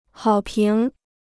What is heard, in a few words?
"好评", Chinese